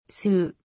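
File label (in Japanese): "す", 日本語; 五十音基礎聽解題目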